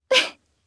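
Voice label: Vox Happy, Japanese; Shamilla; kingsraid audio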